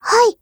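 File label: AGREE, Japanese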